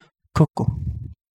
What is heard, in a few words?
Kapingamarangi; midnight snapper